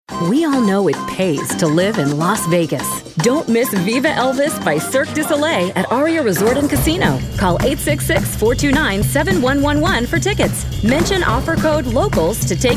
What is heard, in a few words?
Upbeat Perky